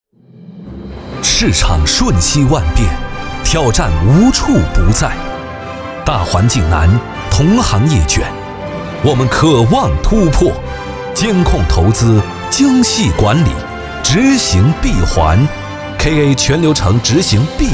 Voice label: 男 宣传片 市场环境

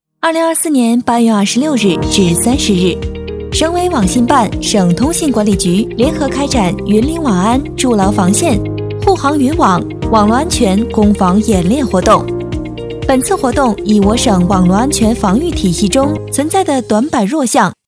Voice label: 女 新闻; 护航云网

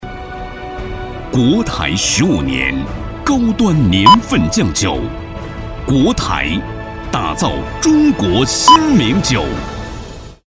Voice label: 男 广告, 酒类广告配音; 国台酒

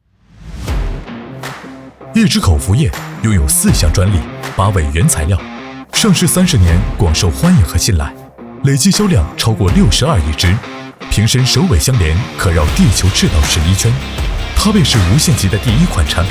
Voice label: 男 广告; 无限极

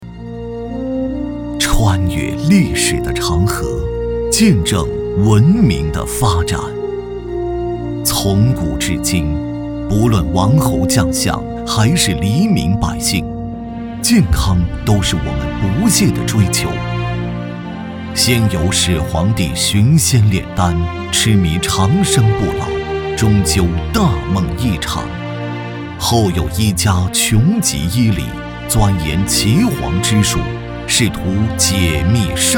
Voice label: 男 讲述 大气讲述 历史感

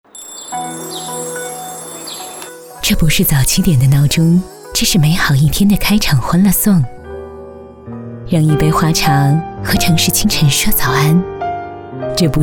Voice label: 女 宣传片 温柔质感 品质类

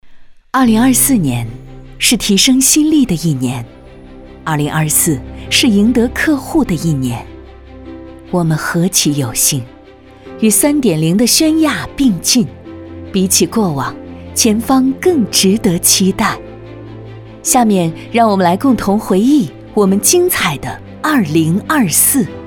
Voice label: 女 年度总结感慨感情大气汇报, 国语配音

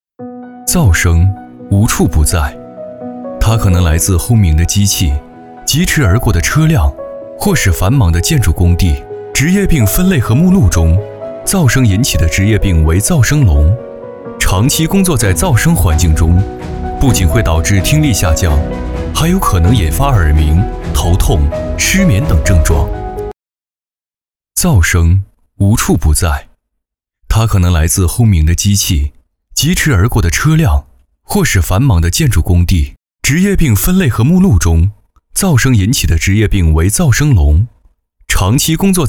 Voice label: 男, 宣传片, 科普, 关注噪声职业病

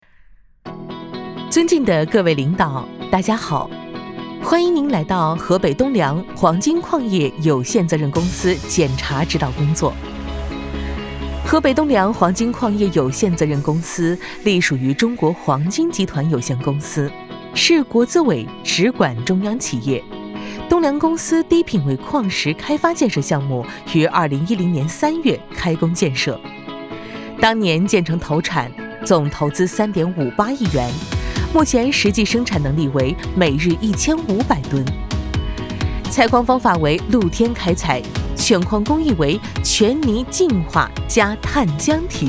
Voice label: 女 汇报; 河北东梁黄金矿业有限责任公司

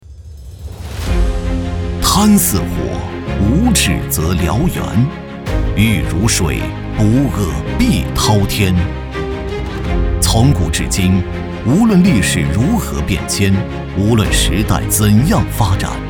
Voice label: 男 专题 警示教育

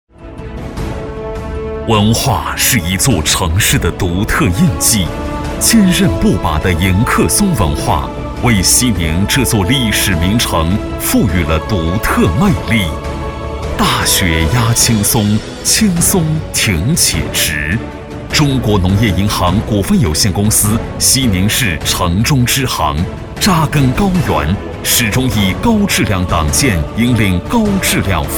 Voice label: 男 宣传片, 浑厚专题配音, 国语配音; 农业银行; 百佳网点

